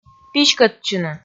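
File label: "писькӧдчыны", Komi, female; audio dict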